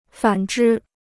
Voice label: "反之", Chinese

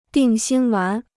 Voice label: "定心丸", Chinese

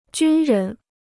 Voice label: "军人", Chinese

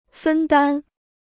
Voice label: "分担", Chinese

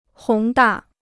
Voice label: "宏大", Chinese

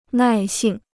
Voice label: "耐性", Chinese

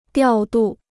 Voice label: "调度", Chinese

